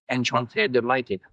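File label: Voice Acting es M, Spanish, male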